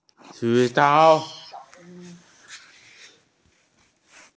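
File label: "raise hand", Mandarin; ju3shou3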